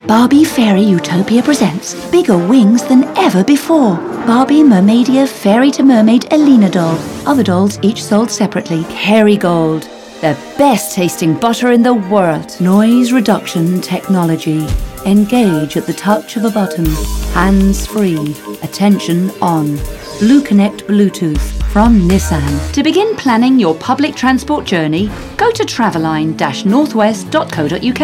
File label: commercial